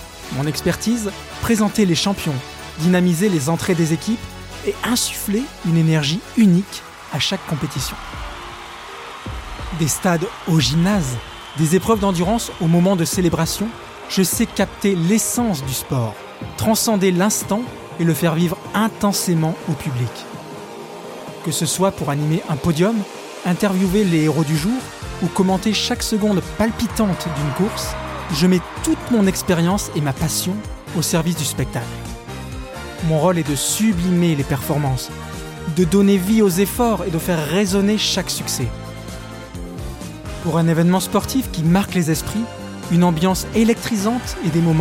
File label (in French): Voix Off TEXTE PRESENTATION; Demo